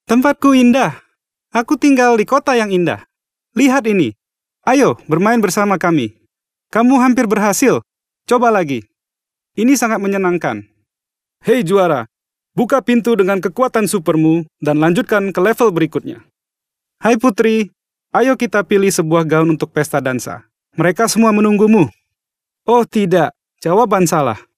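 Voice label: Indonesian male children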